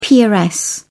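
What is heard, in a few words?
"peeress", English, British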